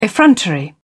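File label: "effrontery", British English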